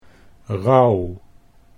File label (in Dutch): "rauw", Dutch